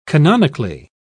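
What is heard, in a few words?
"canonically", British English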